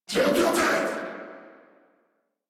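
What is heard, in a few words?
Reload, English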